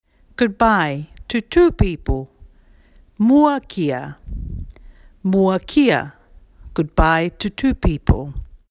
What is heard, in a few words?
Niue goodbye to two people; 2022 Pacific Language Weeks